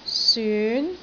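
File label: "syun2", Cantonese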